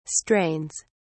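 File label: "strains", British English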